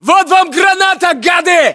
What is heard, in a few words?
inform attack grenade, battlechatter, ru